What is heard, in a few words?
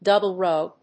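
"double row", English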